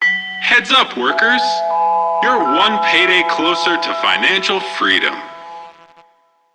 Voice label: PA System, en; Warframe; Solaris Venus